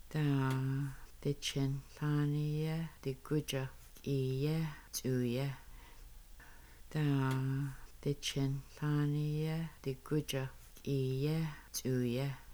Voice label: Which wood is harder birch or spruce, Kaska; Log Cabin